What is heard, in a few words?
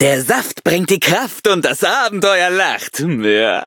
loading, de; Cookie Run